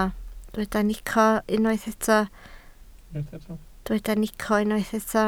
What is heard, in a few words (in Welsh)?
"nico", Welsh